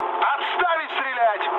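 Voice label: player friendly fire, mood med, Russian; War Thunder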